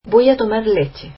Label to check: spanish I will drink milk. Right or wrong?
Right